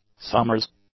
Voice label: "summer s", English; adjectives